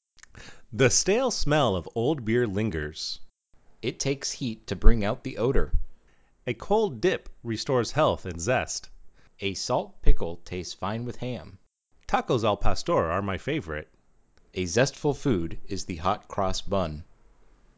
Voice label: english